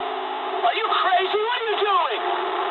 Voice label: eng player killed ally mood low, speech eng